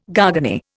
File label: "garganey", Indian